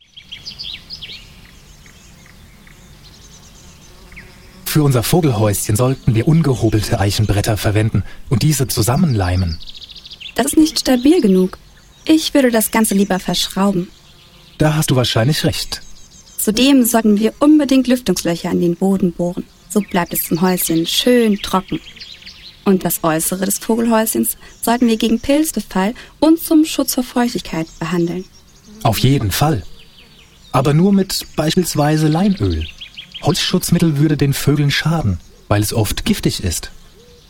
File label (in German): Hörtext; HS Bayern Quali Deutsch Training Hörtext 3 Vogelfreundlicher Garten